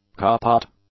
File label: "kaput", english; adjectives